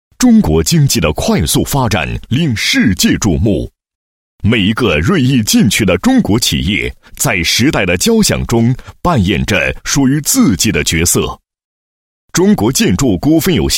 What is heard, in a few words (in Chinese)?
男 企业 宣传介绍, 配音; 中国建筑股份有限公司宣传介绍